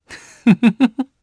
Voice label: Vox Happy, jp; kingsraid; voices heroes jp